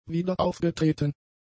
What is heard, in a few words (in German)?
"wiederaufgetreten", German; adjectives